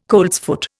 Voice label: "coltsfoot", Indian